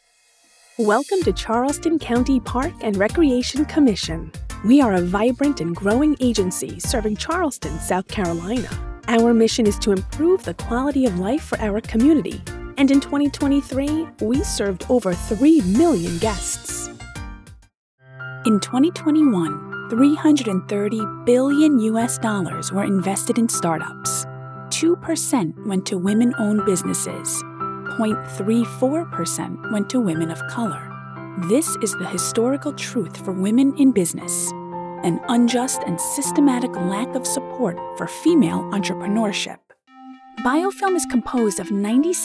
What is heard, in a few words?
Narration and E-Learning